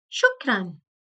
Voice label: Arabic Thank you; Greetings